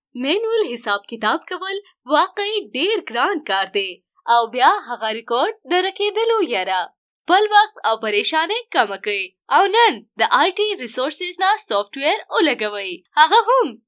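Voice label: Pashto, general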